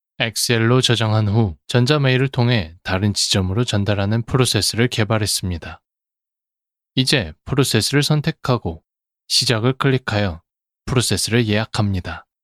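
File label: Korean, male, explainer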